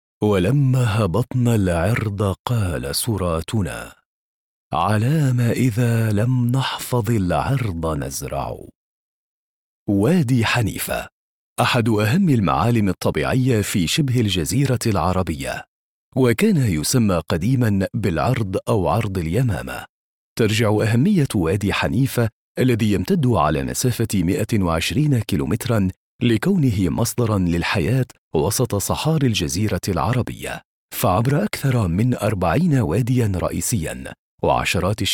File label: Arabic